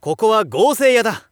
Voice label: shop youngman gousei, voice